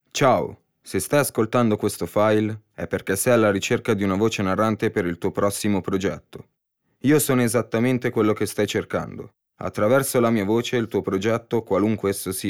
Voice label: Voice Over